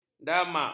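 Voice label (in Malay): "damak", Malay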